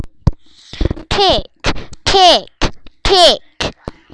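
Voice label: "pick", spoken word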